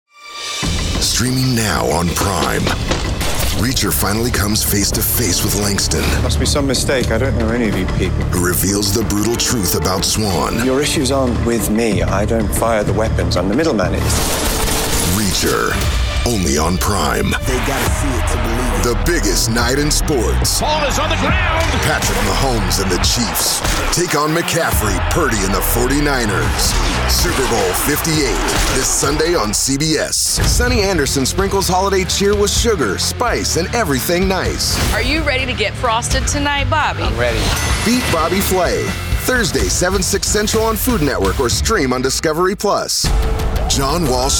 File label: promo men